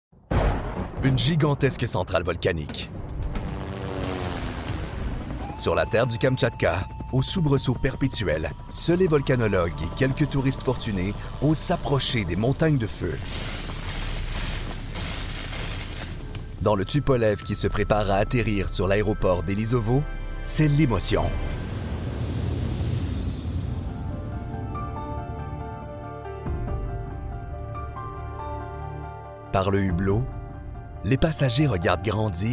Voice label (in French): Documentaire